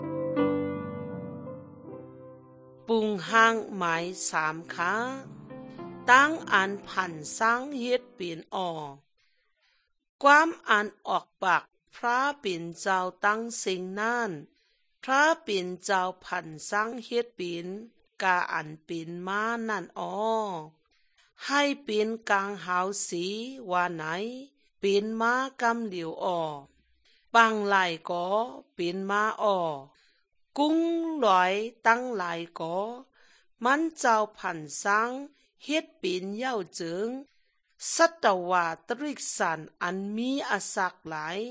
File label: good news shan eastern, drama